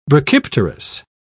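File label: "brachypterous", English, British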